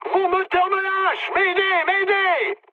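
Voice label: damaged engine, mood high, French; War Thunder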